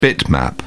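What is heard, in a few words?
"bitmap", British English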